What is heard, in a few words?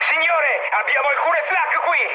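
Italian damaged aa mood high, speech; War Thunder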